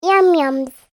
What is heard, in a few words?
human voice girl 2 years says "yumyums"; Child Says ’Yum Yums’; Talking; McKinney Sound